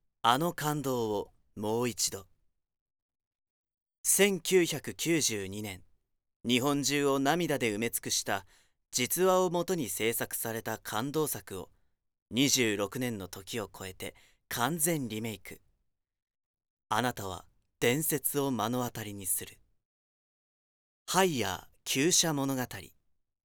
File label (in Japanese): ナレーション